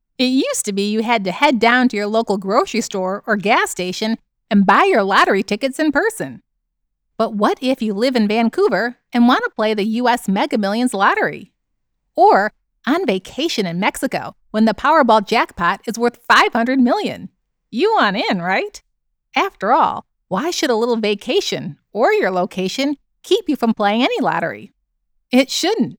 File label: Product Energetic Playful Casual